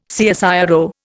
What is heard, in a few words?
"CSIRO", Indian